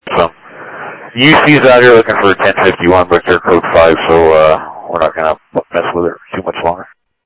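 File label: Phoenix police department, scanner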